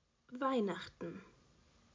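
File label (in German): "Weihnachten", Deutsch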